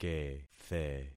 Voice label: "kethe", concat syllables; Affixing experiment